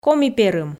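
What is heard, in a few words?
"коми-перым", Komi, female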